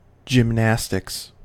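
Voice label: "gymnastics" US English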